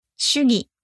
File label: "shugi", Japanese; principle